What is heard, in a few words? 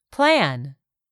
"plan", english; 3000 words